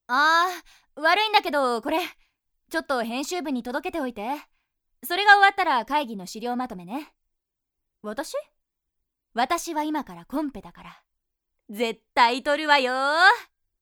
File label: female